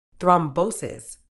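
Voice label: "thrombosis", British English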